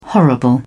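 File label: "horrible", British English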